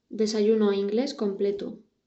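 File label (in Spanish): "desayuno inglés completo", Spanish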